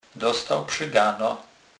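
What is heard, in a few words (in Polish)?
"przygana", Kurpie dialect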